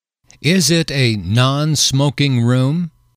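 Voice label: is it a non smoking room, English, male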